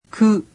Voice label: japanese alphabet "ku"